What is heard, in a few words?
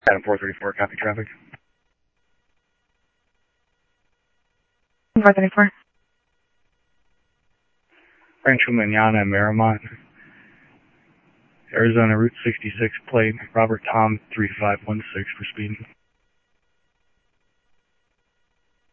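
Sheriff East County, scanner audio trunk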